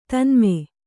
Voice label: "ತನ್ಮೆ", Kannada